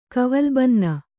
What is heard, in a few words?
"ಕವಲ್ಬನ್ನ", Kannada; kavalbanna; noun; = ಕವಲುದೊಡಕು.